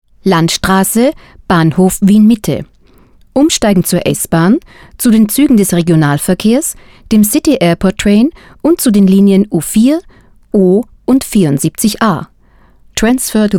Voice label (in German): Beispieldurchsage neue Stimme